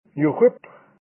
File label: "Nuuhwiip", Kumeyaay; Bad Luck